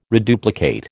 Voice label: "reduplicate", American